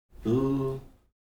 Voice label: "ḷ́" long L accent, Heiltsuk